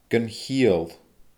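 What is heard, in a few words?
"gun chiall", Scottish Gaelic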